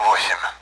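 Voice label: Speech Sound Russian Common AWACS Digits; DCS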